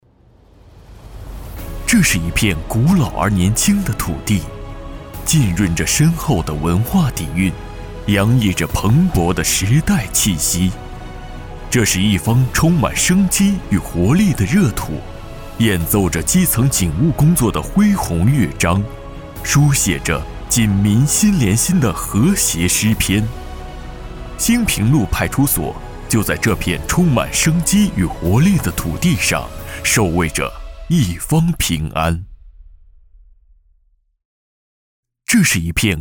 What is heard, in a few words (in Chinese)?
宣传片; 公安法院监狱